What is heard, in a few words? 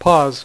"pause", Dealer's Speech; PowerPOKER Demo